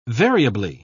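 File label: "variably", British English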